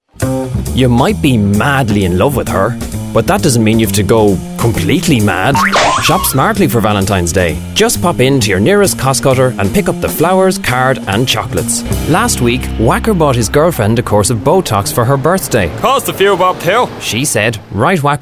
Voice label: Voiceover